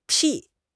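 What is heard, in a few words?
"撇", Mandarin Chinese; HSK6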